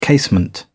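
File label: "casement", British English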